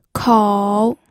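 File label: "kou", third tone, pinyin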